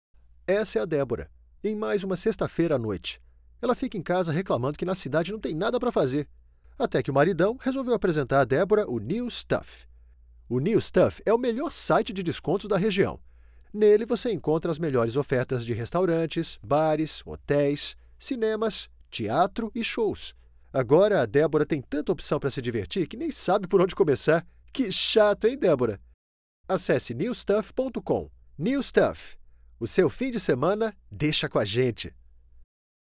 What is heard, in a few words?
portuguese brazilian radio imaging; brazilian portuguese voice actors